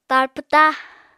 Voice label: "tar pwta", Apatani